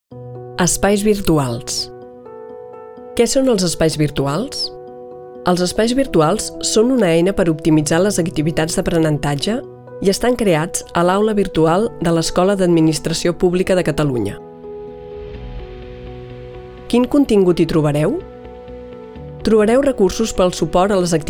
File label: Video VoiceOver